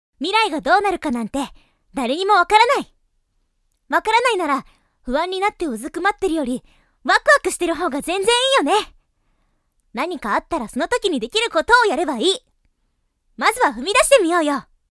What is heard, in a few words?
girl; 少女1; ボイスサンプル